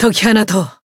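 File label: Voice finish, Japanese; clarte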